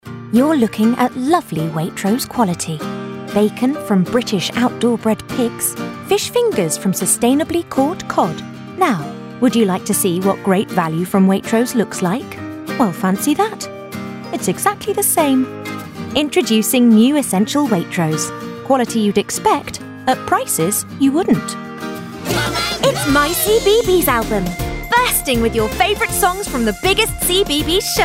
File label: Commercials